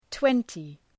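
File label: "twenty", English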